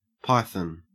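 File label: "python", Australian English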